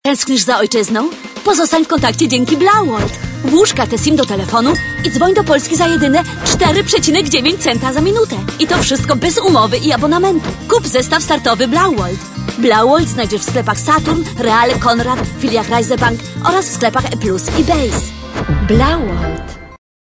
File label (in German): werbung; blauworld